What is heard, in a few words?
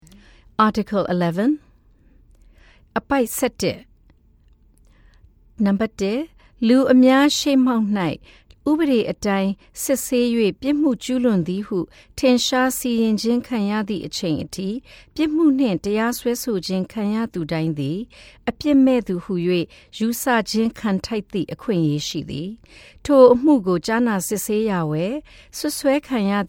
Burmese